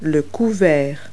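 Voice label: "table setting", English words; Multi-Lingual Talking Picture Dictionary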